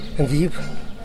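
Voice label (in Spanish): "coser", Teotitlán del Valle Zapotec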